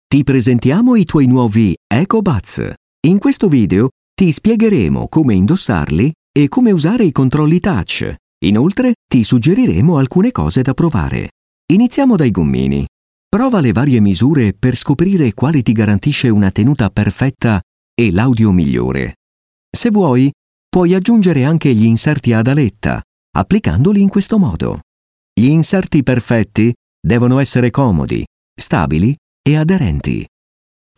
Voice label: italian voice over male narrator

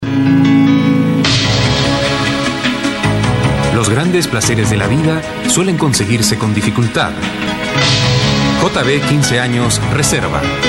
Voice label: spanish voice over male narrator

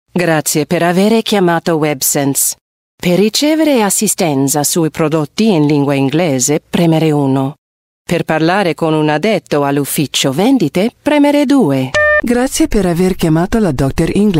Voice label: italian voice over female elearning

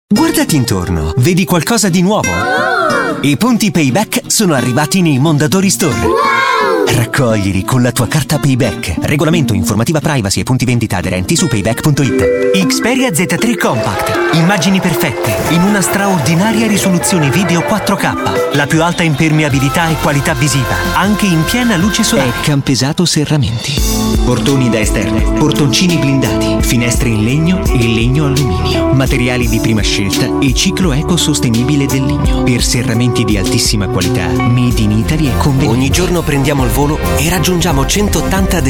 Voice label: italian voice over male commercial

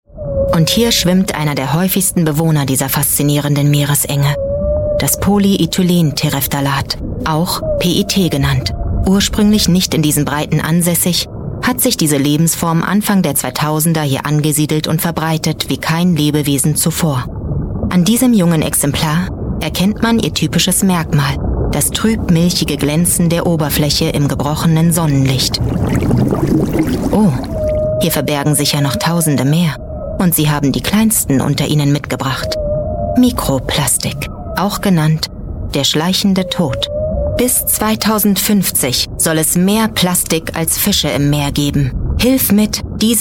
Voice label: german voice over female documentary

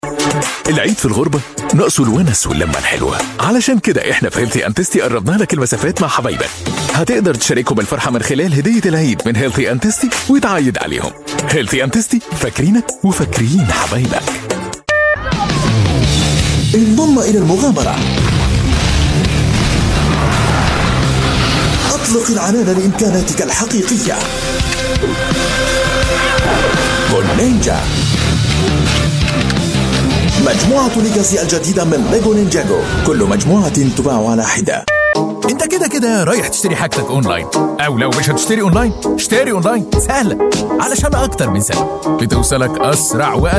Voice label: arabic voice over male commercial

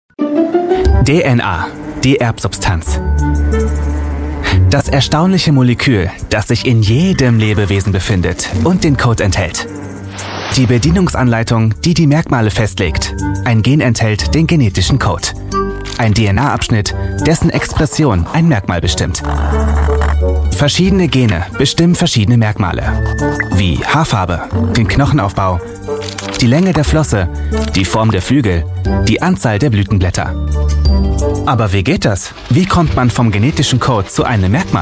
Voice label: german voice over male elearning

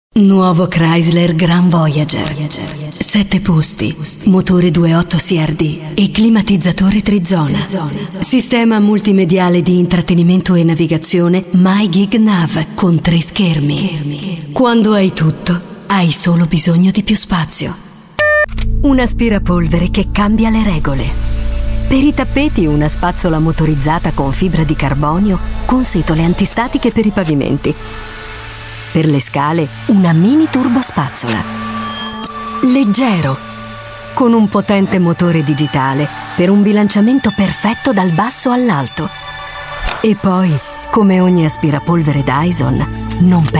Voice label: italian voice over female commercial

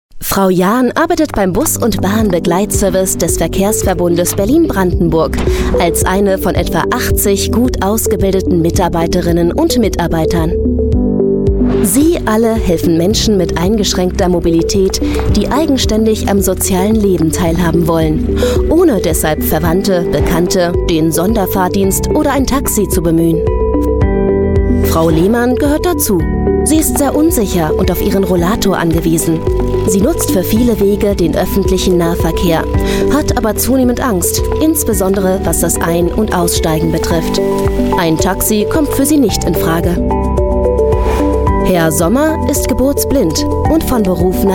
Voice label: german voice over female elearning

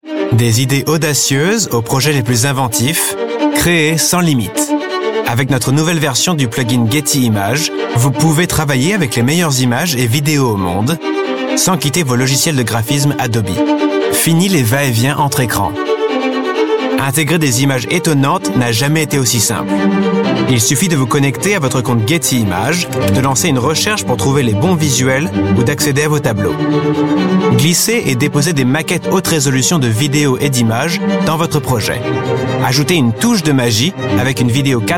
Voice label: french voice over male commercial

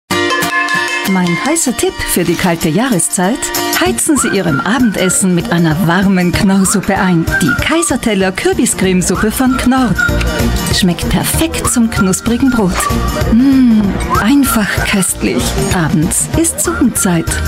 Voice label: german voice over female commercial

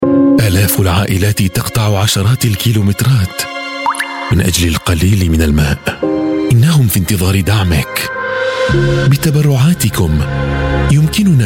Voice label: arabic voice over male commercial

